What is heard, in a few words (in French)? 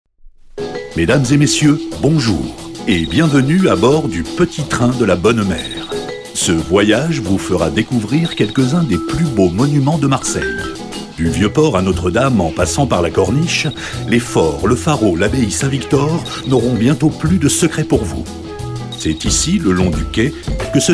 FR H AUDIO GUIDE; Français